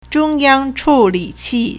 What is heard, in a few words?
"zhong1 yang1 chu3 li3 qi4", Chinese